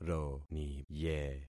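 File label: "roniye", concatenated syllables; Affixing experiment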